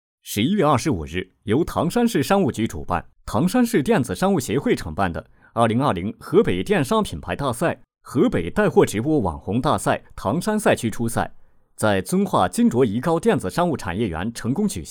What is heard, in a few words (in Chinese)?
男, 新闻播报; 河北带货直播网红大赛